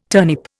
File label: "turnip", Indian